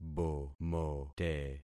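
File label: "bomote", concatenated syllables; Affixing experiment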